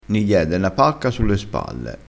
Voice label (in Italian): "pacca", dialettale